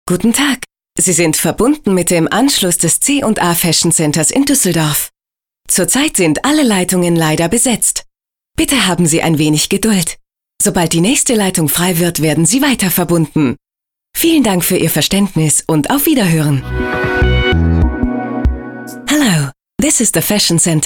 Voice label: Bandansage deu engl

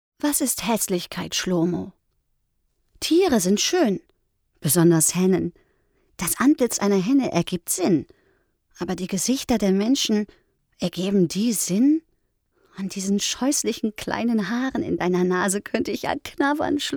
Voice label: sprachdemo

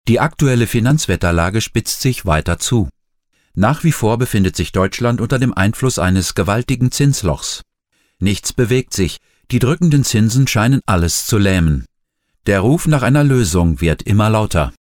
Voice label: b2b nachrichten-stil

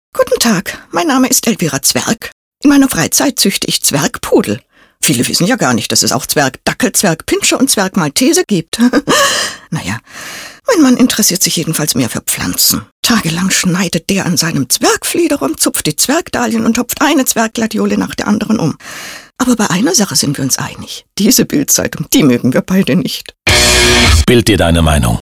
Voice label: werbung

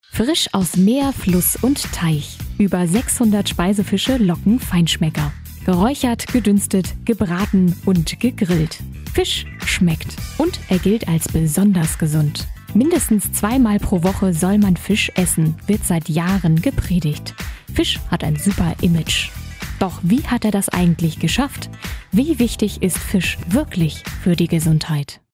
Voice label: doku werblich

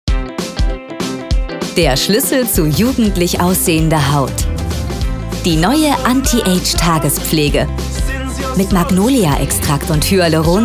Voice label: spot anti age frohlich

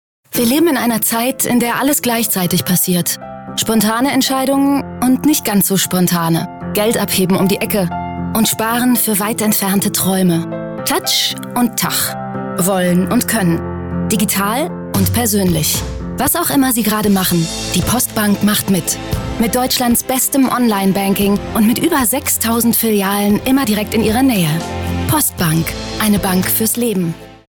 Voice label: Werbung dynamisch; Sprecher in Köln; Muttersprache Deutsch; postbank

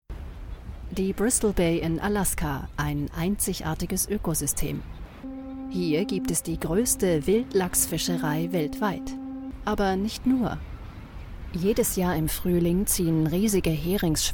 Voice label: doku; Sprecher in Berlin; Muttersprache Deutsch

